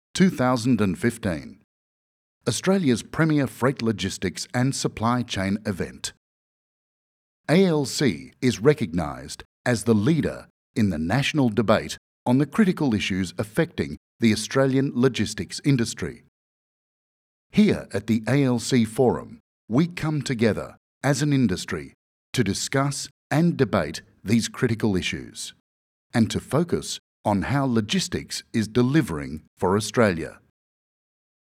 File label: corporate conference welcome